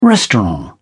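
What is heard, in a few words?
"restaurant", British English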